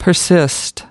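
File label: "persist", US English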